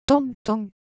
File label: Japanese, Ideo Phones